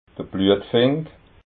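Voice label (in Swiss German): "bluetfink", Alsatian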